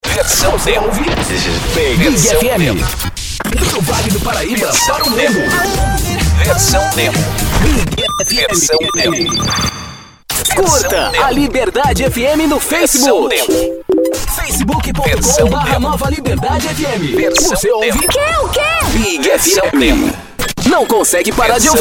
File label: intercom